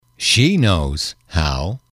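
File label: "she knows how", English, male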